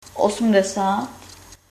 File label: eighty, Czech